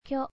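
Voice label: "kyo", Japanese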